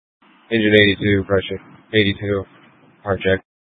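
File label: Incident Tac 1, Scanner audio recording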